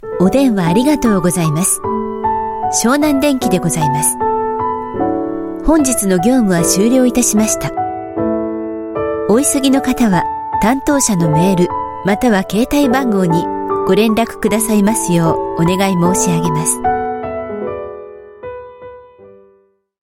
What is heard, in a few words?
Japanese Voice Sample